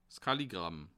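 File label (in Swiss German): "kaligramm", Bas Rhin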